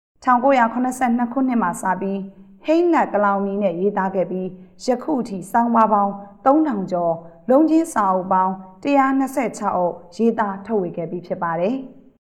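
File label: Burmese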